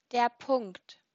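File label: "Punkt", German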